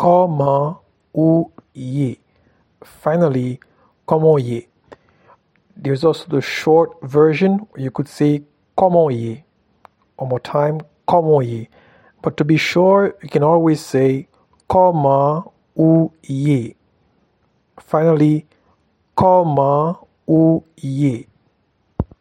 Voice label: How are you in Haitian Creole and How to pronounce Koman ou ye